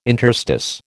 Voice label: "interstice", American